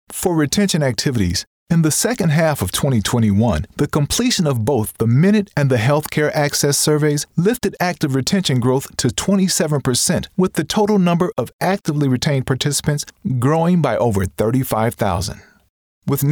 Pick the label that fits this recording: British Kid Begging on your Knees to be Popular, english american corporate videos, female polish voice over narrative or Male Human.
english american corporate videos